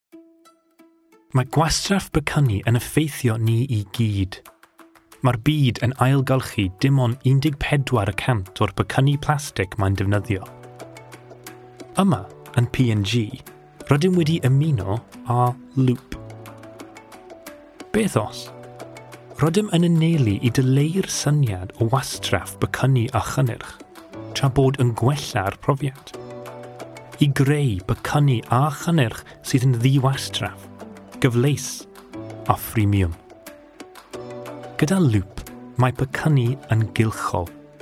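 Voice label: Welsh, general; GoLocalise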